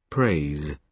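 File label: "praise", British English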